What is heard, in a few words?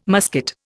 "musket", Indian